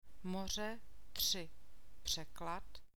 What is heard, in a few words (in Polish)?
rz przyklady, czeski alfabet; moře, tři, překlad; morze, trzy, tłumaczenie